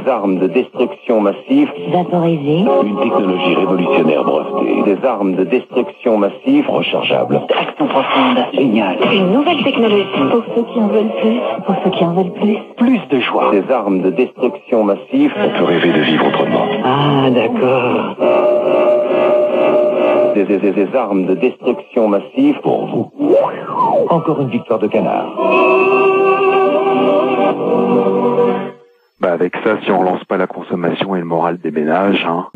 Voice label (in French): Irak repondeur